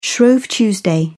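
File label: "shrove tuesday", British English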